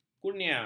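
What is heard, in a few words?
"kurnia", Malay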